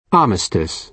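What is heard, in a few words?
"armistice", British English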